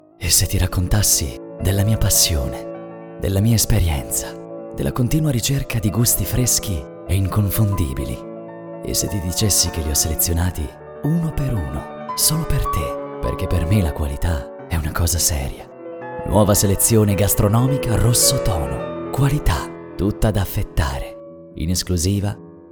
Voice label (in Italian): speaker uomo